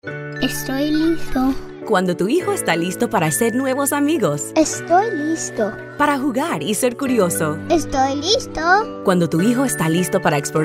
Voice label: Spanish Friendly Mom Female Caring Upbeat Conversational PSA PreK